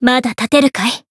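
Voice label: Voice heal, Japanese; isuka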